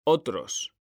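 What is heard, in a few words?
"otros", Spanish; mekanta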